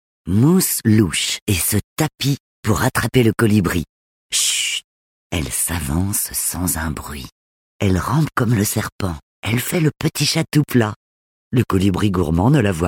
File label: Medley audio book